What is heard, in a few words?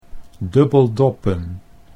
"dubbeldoppen", Dutch